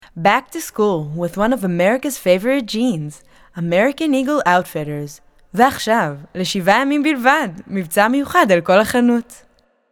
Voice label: English American promos